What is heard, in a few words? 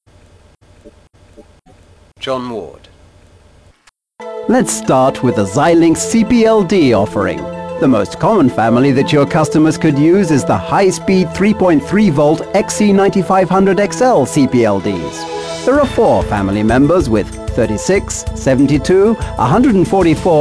Narration, Male voices